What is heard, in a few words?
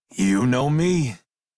Crew Accept Male en; Dialog; Warframe; Railjack Crew; Red Veil